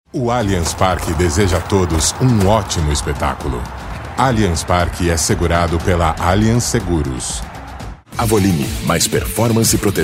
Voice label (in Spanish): BRASIL Masculino; Sonoarte